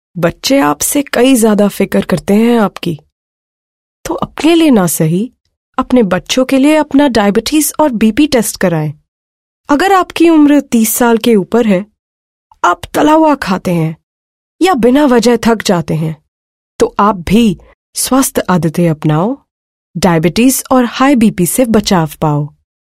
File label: female voice Hindi